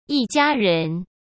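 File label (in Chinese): "一家人", Mandarin Chinese; hsk; chinese-flashcards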